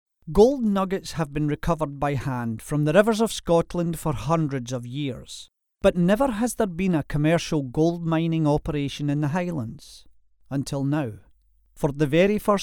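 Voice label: English Scottish, male, voice over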